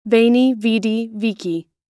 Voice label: veni vidi vici, US English